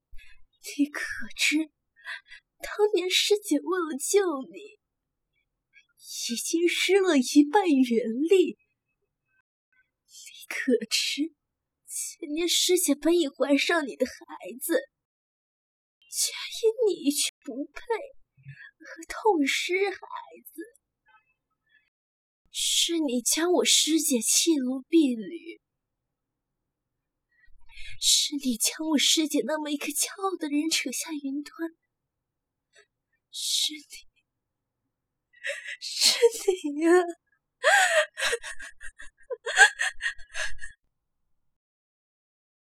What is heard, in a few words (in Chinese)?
哭腔